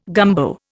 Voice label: "gumbo", Indian